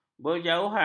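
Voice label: "berjauhan", Malay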